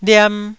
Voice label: "liam1", Taiwanese

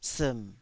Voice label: "siim3", Taiwanese

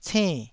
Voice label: "cenn4", taiwanese syllables

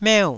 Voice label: "meu4", taiwanese

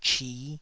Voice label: "chi5", Taiwanese

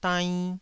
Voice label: "dainn2", Taiwanese syllable

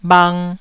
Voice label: "bhang1", taiwanese

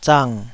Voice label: "zang3", taiwanese Syllables

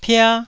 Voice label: "pia1", Taiwanese

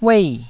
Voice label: "uei4", taiwanese

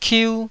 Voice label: "kiu1", taiwanese syllables

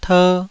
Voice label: "ter2", taiwanese Syllables

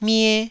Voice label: "mie1", Taiwanese

